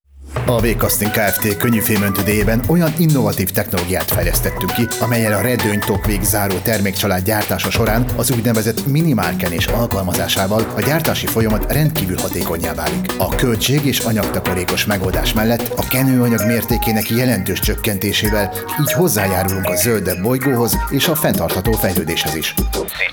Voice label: radio PRspot; V-Casting